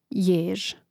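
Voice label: "jež", Croatian; mreznik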